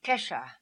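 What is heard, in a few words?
"Kesshah", pronunciations; Fourth Way; Beelzebub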